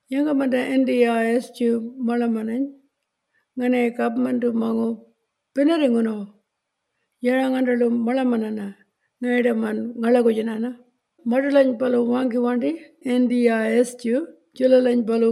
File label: Walmajarri